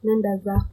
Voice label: go away, swahili